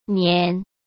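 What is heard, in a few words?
"黏", Mandarin Chinese; chinese flashcards; hsk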